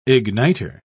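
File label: "ignitor", English, British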